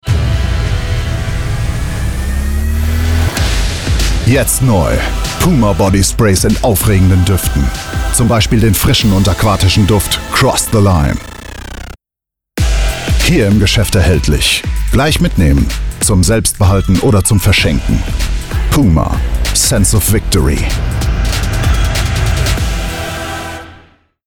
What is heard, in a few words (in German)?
Werbung Sport